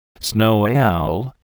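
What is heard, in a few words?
"snowy owl", English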